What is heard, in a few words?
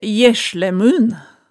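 "jerslæmunn", Hemsedal dialect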